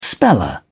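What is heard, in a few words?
"speller", English, British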